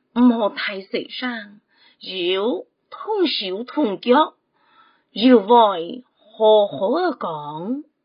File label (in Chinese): (海陸)客語生活常規